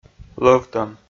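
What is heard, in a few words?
"лӧвтӧм", Komi, male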